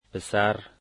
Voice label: "child", dari

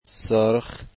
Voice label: red, dari

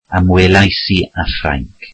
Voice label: welsh I visited France

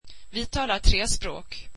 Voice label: we speak three languages, swedish

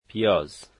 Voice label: onions, dari

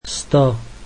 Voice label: slovak one hundred

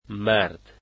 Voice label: "man", Dari

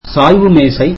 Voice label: tamil desk

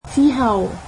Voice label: behind, cantonese

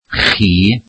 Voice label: "you", Welsh

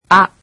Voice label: japanese alphabet "a"

